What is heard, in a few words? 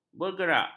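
"bergerak", Malay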